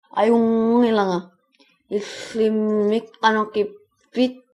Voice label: im fine, greenlandic